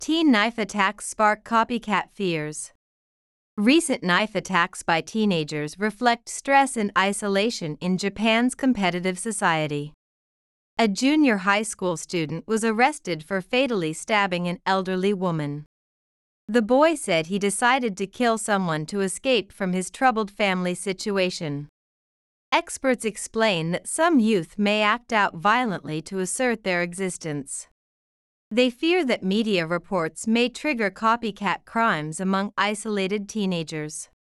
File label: slow; Teen knife attacks spark copycat fears